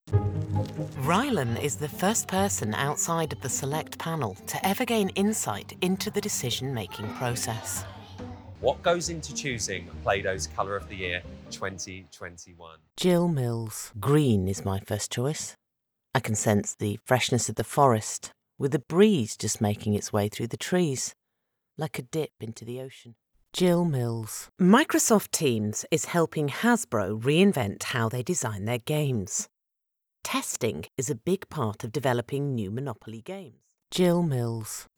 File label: commercial